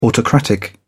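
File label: "autocratic", British English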